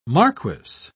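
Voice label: "marquess", British English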